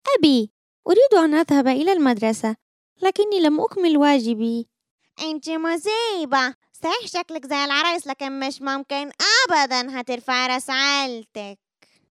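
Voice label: Arabic Saudi Arabia, female, voice over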